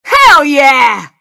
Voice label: taunt hell yeah